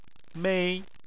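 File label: "mei", Chinese, first tone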